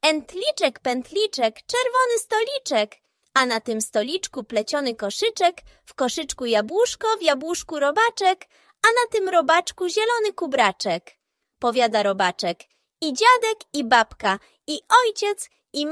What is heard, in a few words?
audiobook; Entliczek pentliczek; Jan Brzechwa